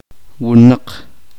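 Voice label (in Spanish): el hombre varón adulto, uspanteko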